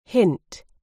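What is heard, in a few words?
"hint", British English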